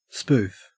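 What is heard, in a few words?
"spoof", Australian English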